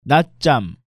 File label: "natjam", Korean